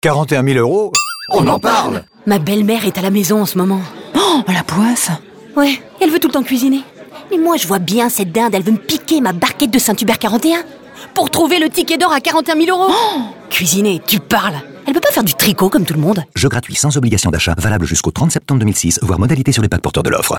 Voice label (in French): pub comedie; saint hubert